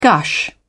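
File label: "gush", British English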